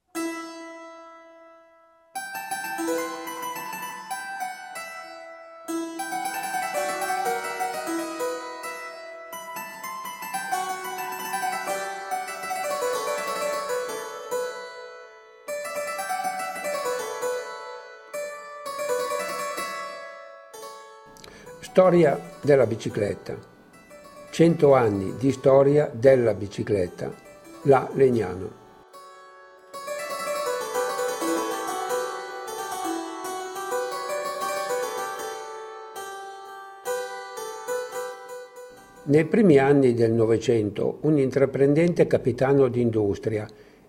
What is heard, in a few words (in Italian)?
dialetto